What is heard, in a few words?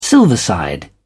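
"silverside", British English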